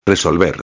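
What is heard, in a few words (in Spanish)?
"resolver", Spanish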